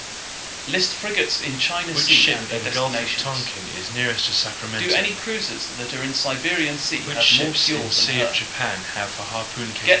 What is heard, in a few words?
mixture, room A, left; SNR10